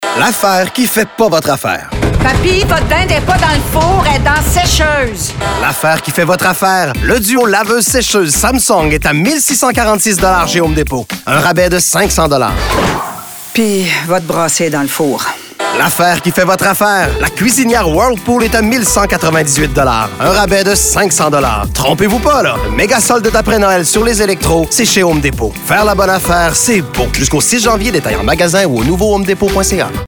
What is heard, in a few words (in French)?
voix femmes